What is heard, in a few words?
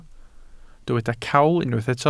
"cawl", Welsh